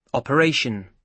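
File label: "operation", British English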